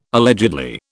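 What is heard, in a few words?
"allegedly", British English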